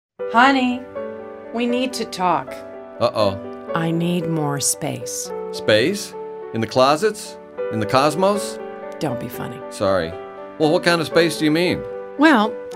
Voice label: Radio Ad; Bike Safe Sonoma